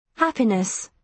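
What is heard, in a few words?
"happiness", British English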